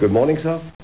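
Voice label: good morning sir, Male voice, "Good morning, Sir."; replacement event sounds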